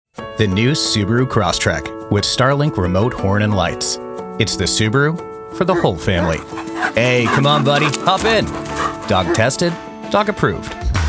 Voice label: Commercial